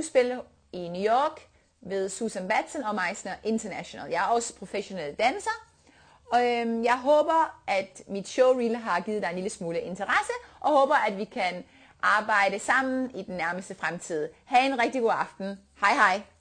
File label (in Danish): dansk